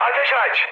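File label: attack position, mood high, Turkish; War Thunder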